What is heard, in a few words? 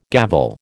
"gavel", American